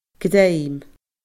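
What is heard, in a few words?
"greim", Scottish Gaelic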